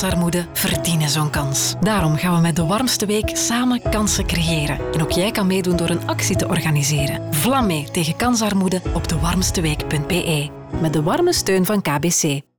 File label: NL Computer Radio; 35s